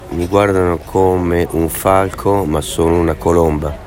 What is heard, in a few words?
Dove in Italian; SLATER